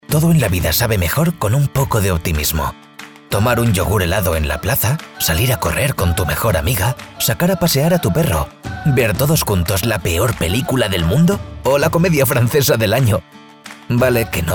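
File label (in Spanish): generico España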